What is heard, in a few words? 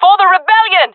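round winning, female voice; player vo; Counter Strike Model Pack; CS:GO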